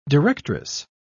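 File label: "directress", British English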